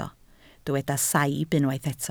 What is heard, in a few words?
"saib", Welsh